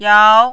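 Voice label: "yao2", mandarin, rising tone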